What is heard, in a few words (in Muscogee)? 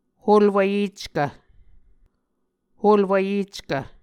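"holwvyeckv", Muscogee word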